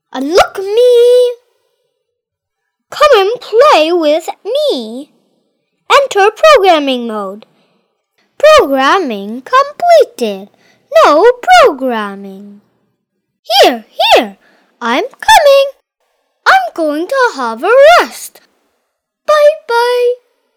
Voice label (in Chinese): 外籍童声男 机器狗